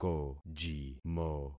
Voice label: "kojimo", concat syllables; Affixing experiment